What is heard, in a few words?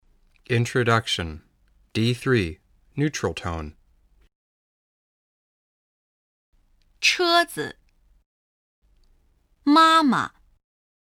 Neutral Tone, Integrated Chinese; Integrated Chinese Level1 Part1; fresnocitycollege